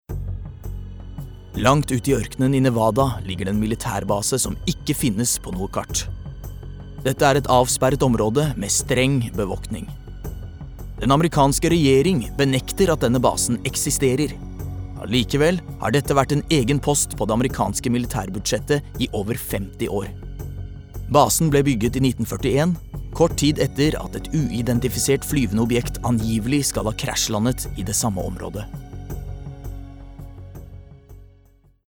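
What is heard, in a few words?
Norwegian documentary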